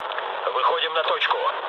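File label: near waypoint, mood low, Russian; War Thunder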